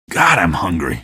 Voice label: question, male; Garry's Mod; hl2